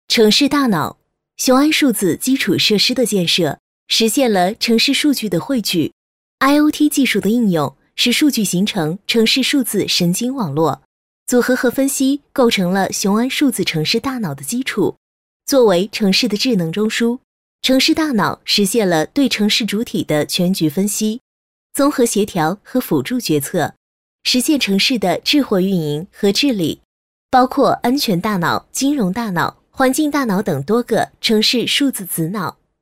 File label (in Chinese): 女, 咨询, 普通播报; 雄安城市